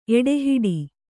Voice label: "ಎಡೆಹೊಡೆ", Kannada

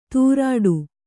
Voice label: "ತೂರಾಡು", Kannada

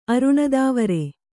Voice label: "ಅರುಣದಾವರೆ", Kannada

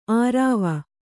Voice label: "ಆರಾವ", Kannada

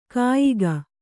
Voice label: "ಕಯಿಕೆ", Kannada

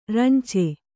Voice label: "ರಂಚೆ", Kannada

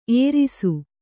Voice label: "ಎರಸು", Kannada

